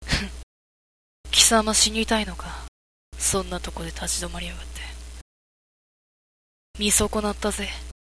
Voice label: boy